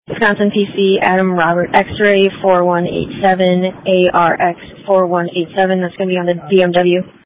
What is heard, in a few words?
Police Tactical Encrypted; sdr